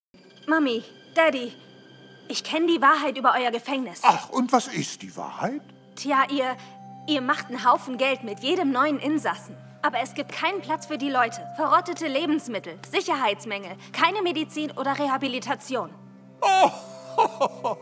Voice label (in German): Synchron